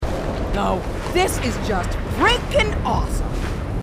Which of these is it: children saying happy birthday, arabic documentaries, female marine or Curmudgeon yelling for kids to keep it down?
female marine